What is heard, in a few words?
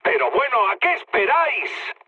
attack position, mood low, speech; War Thunder